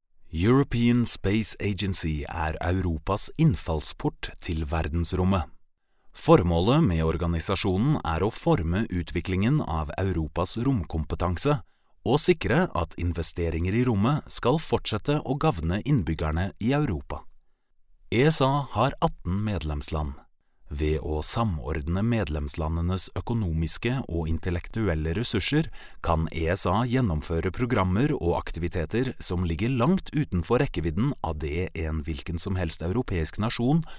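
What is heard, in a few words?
Norwegian, male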